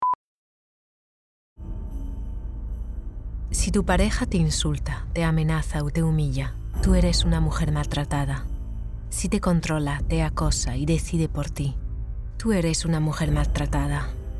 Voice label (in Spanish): PUB espagnol; INSTITUTO DE LA MUJER